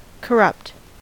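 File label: "corrupt", US English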